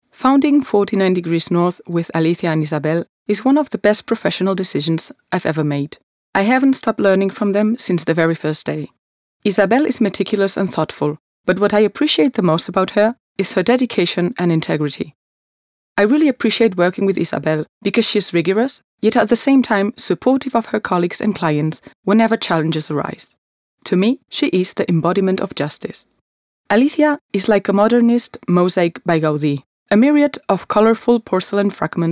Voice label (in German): Vorstellung, EN